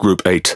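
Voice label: Speech Pilots Fighter, British English; World Of Warships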